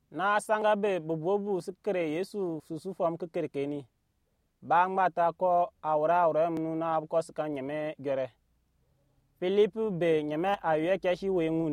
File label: Anufo; Philippe et l’eunuque éthiopien; Philip and the Ethiopian